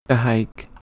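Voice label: "h2eh2oik", Proto-Indo-European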